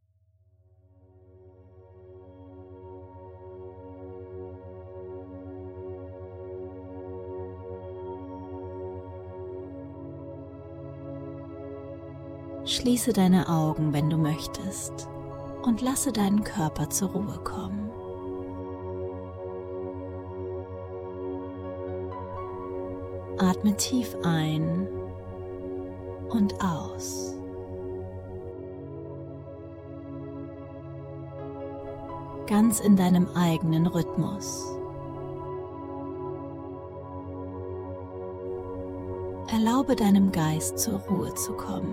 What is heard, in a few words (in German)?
Meditation; Seelenvertraege erkennen und aufloesen